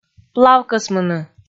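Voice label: "плавкӧсмыны", Komi, female; audio dict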